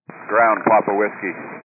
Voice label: 122 725MHz, scanner radio